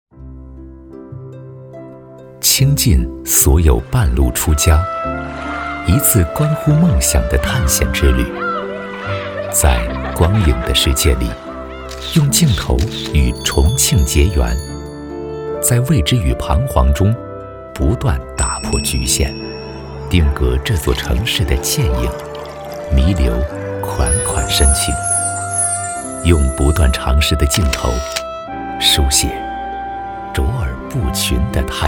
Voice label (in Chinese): 男, 专题